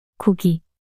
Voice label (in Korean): "고기" 단어 여자